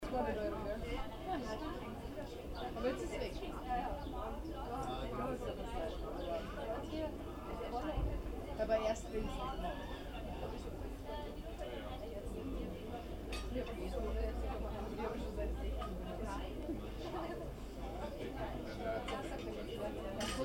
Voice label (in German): menschen reden cafe